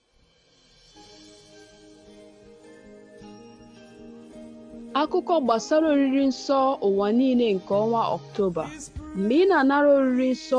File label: IGBO JINGLES